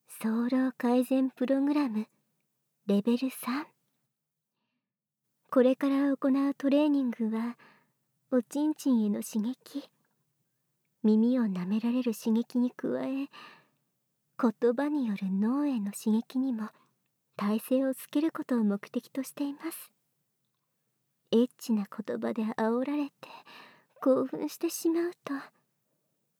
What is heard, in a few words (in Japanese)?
淫語&耳奥舐め, ASMR; 【Lv3】オナサポ